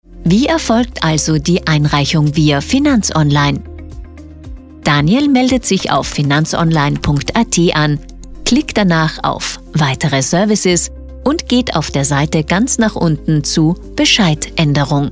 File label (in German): Sprecherdemo Erklaervideo; BMI Beschwerde